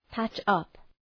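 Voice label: "patch up", English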